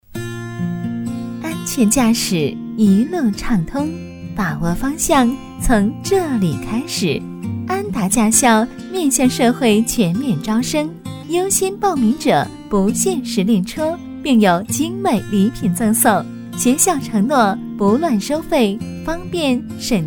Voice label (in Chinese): 广告, 国语女声配音, 成熟; 驾校